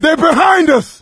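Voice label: watch out behind, voice, male; Left 4 Dead 2; coach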